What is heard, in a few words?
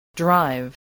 "drive", English